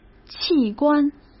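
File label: "器官", Mandarin Chinese; chinese flashcards; hsk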